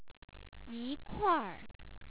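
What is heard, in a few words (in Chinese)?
"yikuair", Chinese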